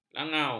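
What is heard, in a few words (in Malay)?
"langau", Malay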